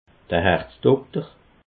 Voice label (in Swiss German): "herzdokter", alsace